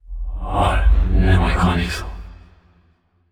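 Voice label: sad, voice; Aragami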